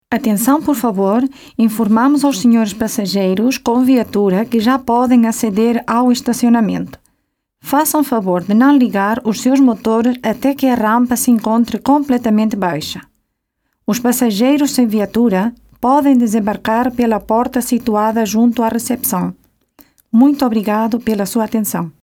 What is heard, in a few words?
Portugues